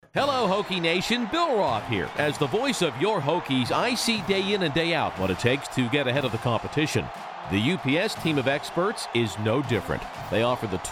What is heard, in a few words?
Radio Spot Sample; UPS